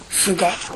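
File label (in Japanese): "suga", 南山形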